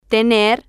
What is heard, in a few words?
"tener", spanish